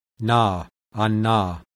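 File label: voiced alveolar nasal; IPA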